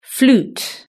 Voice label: "flute", british english

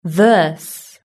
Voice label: "verse", British English

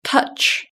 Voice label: "touch", British English

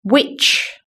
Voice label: "witch", british english

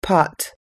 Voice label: "pot", us english

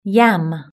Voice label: "yam", British English

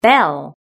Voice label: "bell", british english

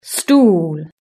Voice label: "stool", British English

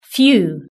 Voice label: "few", British English

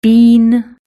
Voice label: "bean", british english

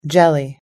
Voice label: "jelly", us english

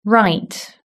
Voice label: "right", british english

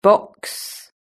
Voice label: "box", british english

